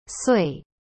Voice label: "岁 sui", Chinese

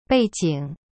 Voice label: "背景", Chinese; bei jing

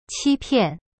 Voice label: "欺骗", Chinese; qi pian

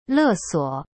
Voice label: "勒索" Chinese; le suo

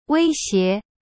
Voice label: "威胁", Chinese; wei xie

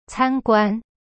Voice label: "参观" Chinese; can guan

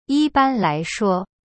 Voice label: "一般来说" Chinese; yi ban lai shuo

